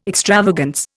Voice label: "extravagance", Indian

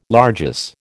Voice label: "largesse", American

